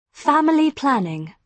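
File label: "family planning", British English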